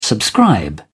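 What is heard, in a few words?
"subscribe", British English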